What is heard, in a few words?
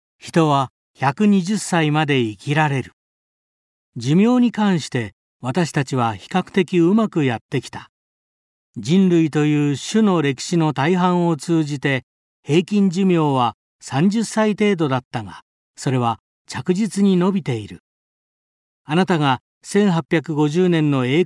Narration